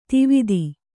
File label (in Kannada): "ಧೋವತಿ", Kannada